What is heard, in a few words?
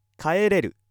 male